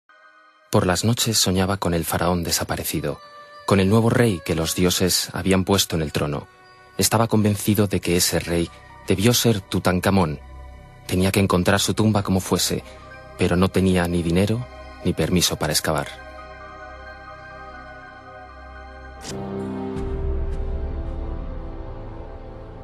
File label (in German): hoerspiel spanisch, maenner